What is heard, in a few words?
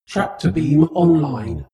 spaceship female status report